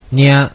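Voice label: "nya", Japanese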